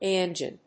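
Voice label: "IC engine", English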